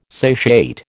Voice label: "satiate", American

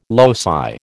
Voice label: "loci", American

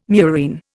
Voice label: "murine", Indian

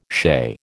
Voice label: "shea", American

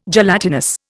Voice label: "gelatinous", Indian

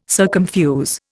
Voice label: "circumfuse", Indian

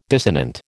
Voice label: "dissonant", American

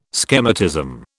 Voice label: "schematism", British

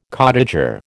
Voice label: "cottager", American

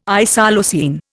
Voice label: "isoleucine", Indian

